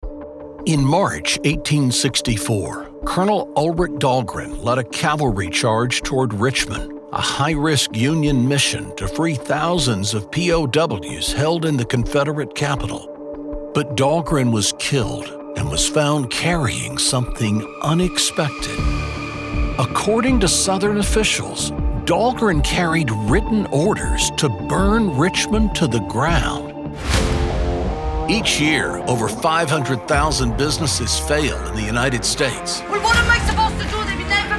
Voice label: TV Narration